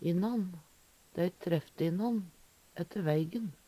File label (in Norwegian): innan, Numedalsmål; kvarandre er eit resiprokt pronomen